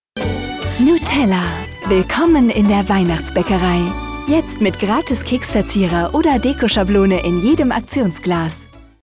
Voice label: tv spot; tv-spots; nutella